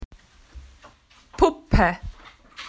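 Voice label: "doll", Australian English; PictureDictionary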